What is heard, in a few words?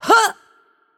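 Arabic AttackHeavy; bloom minigame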